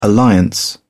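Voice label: "alliance", British English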